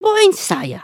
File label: group talk; emotes